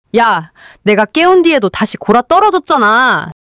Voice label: anger; monologue